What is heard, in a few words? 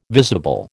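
"visible", American English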